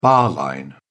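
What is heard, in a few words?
"bar line", British English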